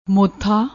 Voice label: "mothaa", Punjabi